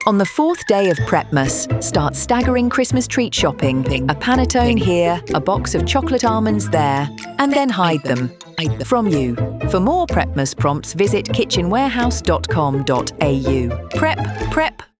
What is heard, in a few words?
Radio